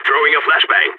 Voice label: flashbang, balkan; vo